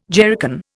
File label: "jerrycan", Indian